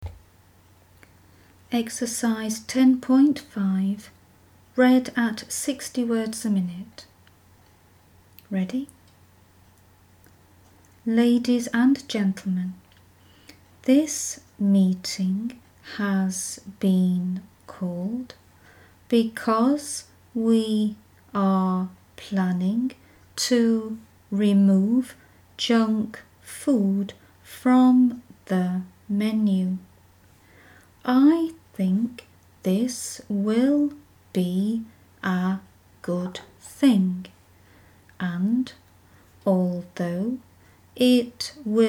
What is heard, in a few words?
60wpm; Teeline